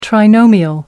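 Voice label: "trinomial", British English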